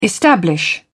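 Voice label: "establish", British English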